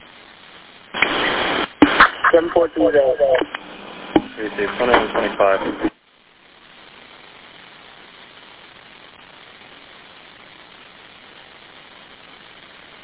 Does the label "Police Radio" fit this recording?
Yes